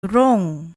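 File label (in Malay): "rong", Malay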